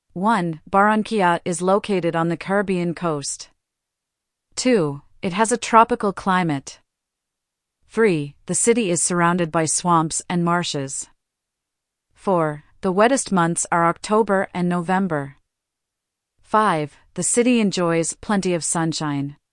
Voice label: dictation